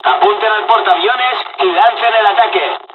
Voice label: dialog, dialogs spanish; us midway; War Thunder

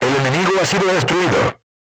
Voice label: voice message target destroyed, Spanish

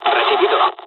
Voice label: dialogs spanish; War Thunder

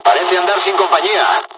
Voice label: dialogs spanish; War Thunder

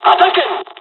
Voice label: dialogs spanish; War Thunder

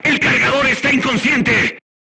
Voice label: voice message charger contused, Spanish

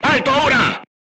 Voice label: voice message short stop, Spanish

